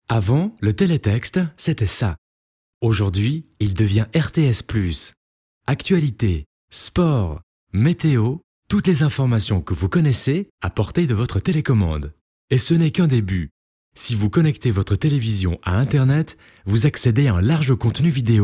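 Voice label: Swiss French narration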